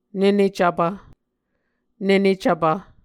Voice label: "nene cvpv", Muscogee